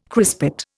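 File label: "crispate", Indian English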